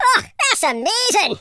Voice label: amazing, Scotsman; speech

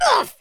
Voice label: oof, Super Hero; speech

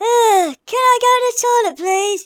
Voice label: coward, speech, Hippy

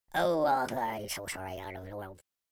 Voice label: pray, speech, Pirate

